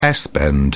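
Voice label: "s bend", British English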